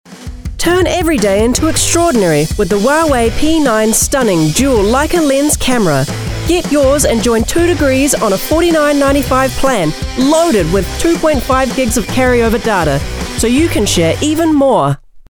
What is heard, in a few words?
Hard Sell Retail read